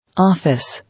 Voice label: "Office", English

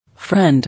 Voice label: "Friend", English

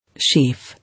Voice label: "Sheaf", English

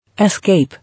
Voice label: "Escape", English

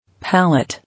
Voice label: "Palate", English

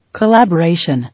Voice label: "Collaboration", English

